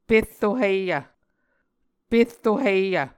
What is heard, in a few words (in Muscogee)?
"perro hiyv", Muscogee